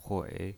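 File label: "hui", third tone, pinyin voice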